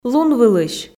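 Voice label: "лунвылысь", Komi, female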